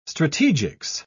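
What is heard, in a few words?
"strategics", British English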